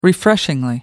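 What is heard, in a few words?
"refreshingly", English, uk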